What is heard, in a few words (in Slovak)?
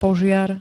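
"požiar", Slovak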